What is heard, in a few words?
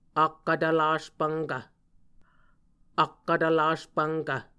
"akkvtvlas pvnkv", Muscogee